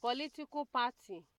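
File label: "political matter", Nigerian Pidgin, female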